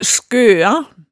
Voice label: "skøa", hemsedalsmaalet

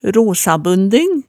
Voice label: "rosabunding", Hemsedal

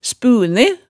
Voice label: "spune", hemsedalsmaalet

